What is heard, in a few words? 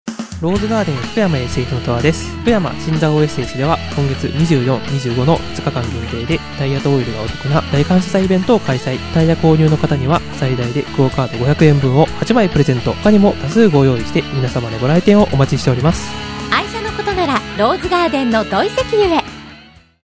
event announce